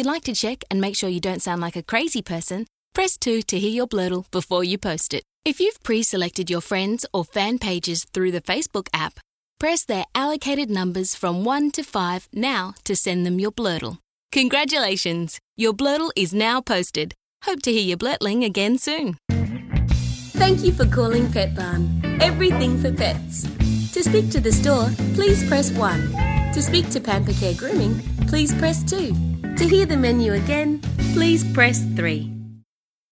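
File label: interactive voice response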